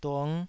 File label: "dung5", Taiwanese